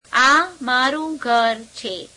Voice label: gujarati, this is my house; Demonstrative Pronouns

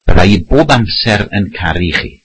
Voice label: welsh I will always love you

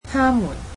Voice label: "they", Cantonese

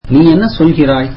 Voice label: tamil What do you mean

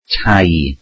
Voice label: welsh houses

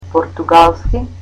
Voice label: "Portuguese" Macedonian

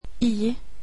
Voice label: "i", swedish alphabet

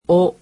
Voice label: "wo", japanese; alphabet

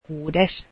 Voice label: sixth, finnish

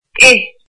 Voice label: in, irish

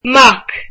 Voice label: son, irish

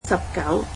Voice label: "nineteen", Cantonese

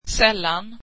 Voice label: swedish rarely

